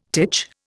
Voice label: "titch", Indian